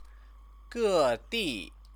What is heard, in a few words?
"gedi", Chinese; Chinese lessons